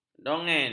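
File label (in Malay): "dongeng", Malay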